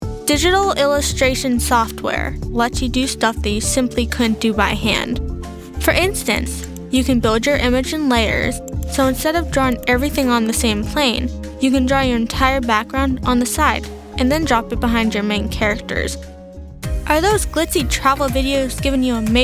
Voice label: narration